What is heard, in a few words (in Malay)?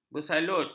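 "bersalut", Malay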